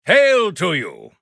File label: male human greetings